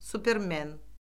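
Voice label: "supermen", Azerbaijani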